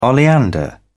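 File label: "oleander", British English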